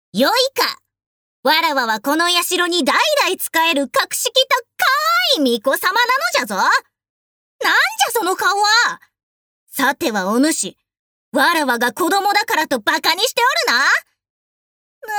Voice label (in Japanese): f onnanoko; 女の子・小学生／それ以下